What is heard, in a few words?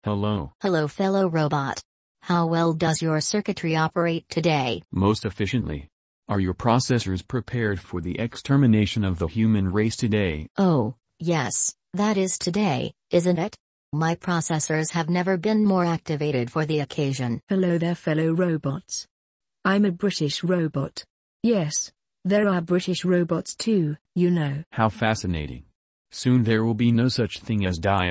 narration